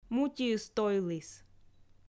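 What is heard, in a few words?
"мутиыс тойліс", Komi, female; kpv audio dict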